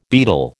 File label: "beadle", American